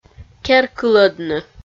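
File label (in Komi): "кер кылӧдны", Komi, female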